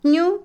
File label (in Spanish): hornear, Otomí, mujer; Comida; INALI